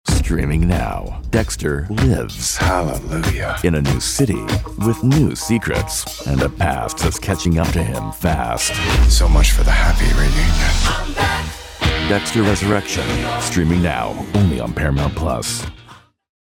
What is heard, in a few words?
PROMO, Intense · Dramatic · Compelling; Promo Demo Clips; Dexter